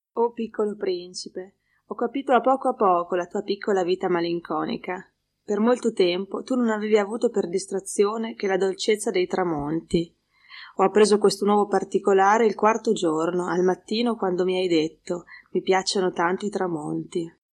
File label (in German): Italienisch Parma